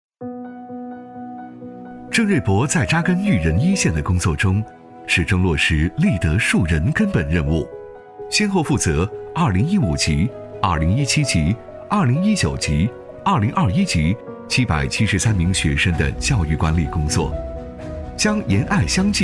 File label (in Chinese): 男, 人物宣传, 大气抒情; 男181-时尚科技 高端大气